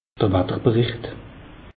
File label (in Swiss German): "watter bericht", alsace; meteo